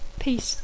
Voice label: "piece", English